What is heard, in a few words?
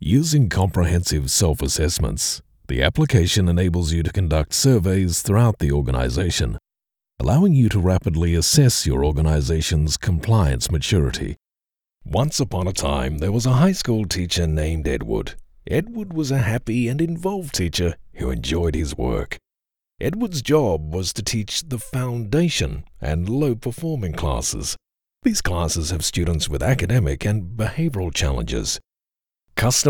Cold